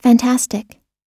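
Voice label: "fantastic", English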